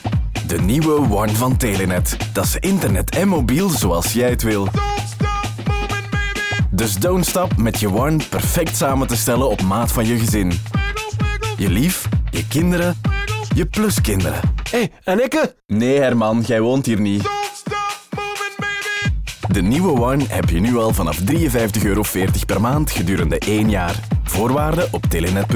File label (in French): radio; tbwa; aanstekelijk voor telenet